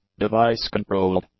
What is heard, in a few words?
"device-controlled", english; adjectives